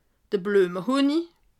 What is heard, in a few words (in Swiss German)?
"blueme", alsace